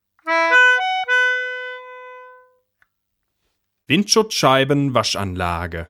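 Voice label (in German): "Windschutzscheibenwaschanlage", German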